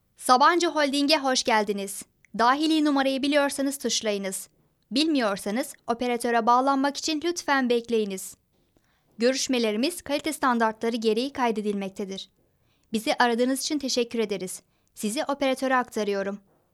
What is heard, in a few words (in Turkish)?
REKLAM